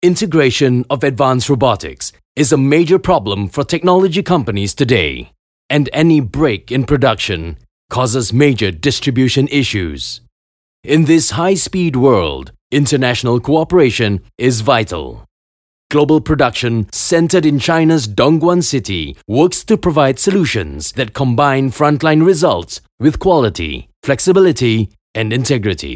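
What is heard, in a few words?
Male VO English Announcer Corporate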